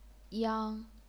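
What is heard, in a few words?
"yang", chinese pinyin, first tone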